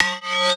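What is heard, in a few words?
vox F minor tone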